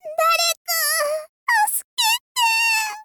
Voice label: princess faint